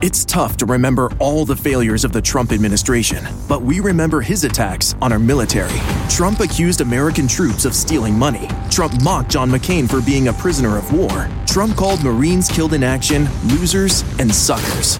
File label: Political Attack Strong, political voiceover; Strong Attack Ad against Trump